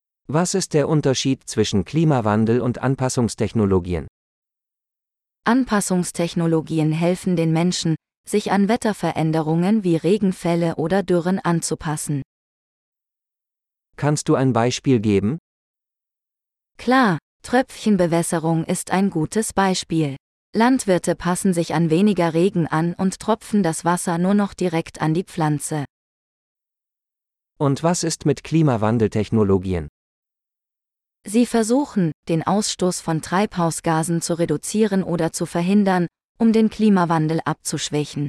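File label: Climate Technologies - Dialogue, German; de-en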